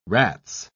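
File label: "rats", British English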